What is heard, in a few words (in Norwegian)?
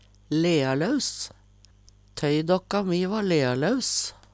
"lealaus", Numedalsmål; Tøydåkka mi va lealaus.; Adjektiv